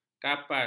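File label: "kapas", Malay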